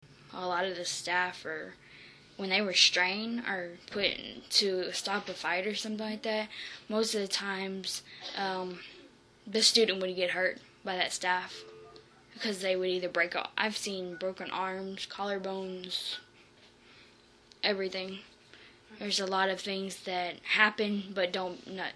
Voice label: girls voices